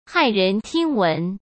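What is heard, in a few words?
"骇人听闻", Mandarin Chinese; hsk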